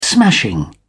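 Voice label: "smashing", English, British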